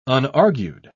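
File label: "unargued", British English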